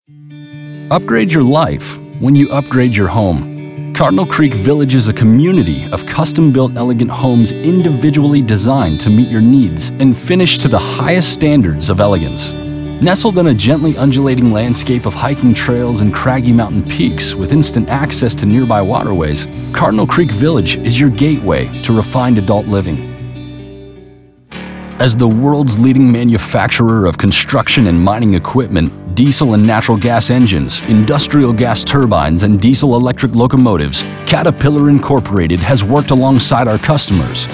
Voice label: NARRATION